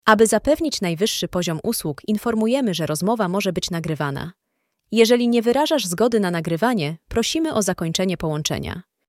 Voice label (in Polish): nagranie sekretarki